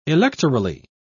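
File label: "electorally", British English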